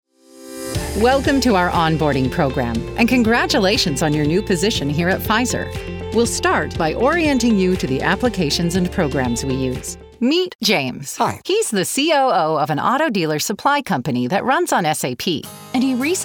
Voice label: corporate narration